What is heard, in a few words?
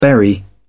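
"berry", British English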